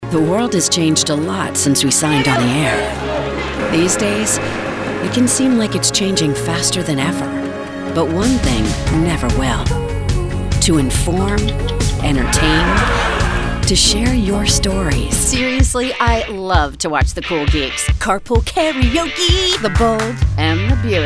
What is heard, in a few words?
tv affiliate female